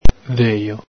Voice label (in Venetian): "dhejo", Veneto